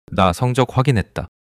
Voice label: neutral